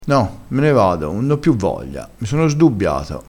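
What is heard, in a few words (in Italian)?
"sdubbiassi", dialettale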